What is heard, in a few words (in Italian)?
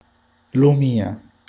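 "limone", Italian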